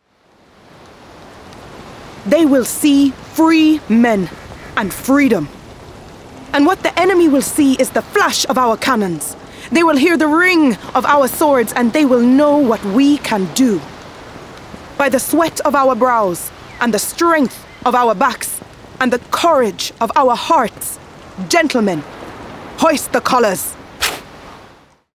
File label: Character Caribbean Accent